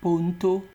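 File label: "ponto", Nigerian Pidgin, male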